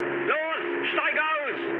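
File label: German bailout mood low, speech; War Thunder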